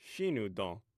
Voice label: "shinudon", omaha language

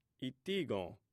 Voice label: "itigon", Omaha

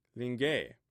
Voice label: "thinge", Omaha

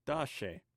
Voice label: "tashe", omaha language

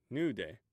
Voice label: "nude", Omaha

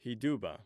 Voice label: "hiduba", omaha language

